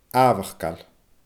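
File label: "aabhachdail", Scottish Gaelic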